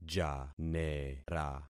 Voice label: "janera", concat syllables; Affixing experiment